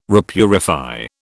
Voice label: "repurify", British

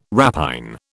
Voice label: "rapine", British

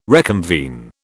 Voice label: "reconvene", British

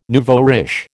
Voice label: "nouveau riche", American

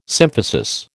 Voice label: "symphysis", American

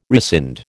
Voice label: "rescind", American

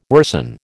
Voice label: "worsen", American

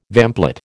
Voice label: "vamplate", American

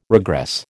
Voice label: "regress", American

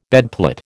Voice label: "bedplate", American

